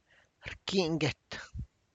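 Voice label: tlacochahuaya, Zapotec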